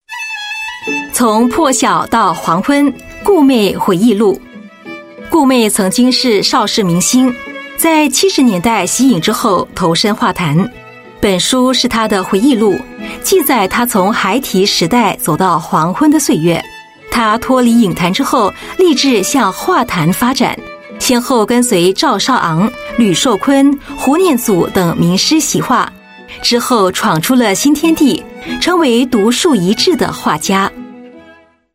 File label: Mandarin TVC, Mandarin China Female